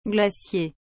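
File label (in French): "glacier", French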